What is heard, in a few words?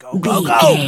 voice male soldier go go go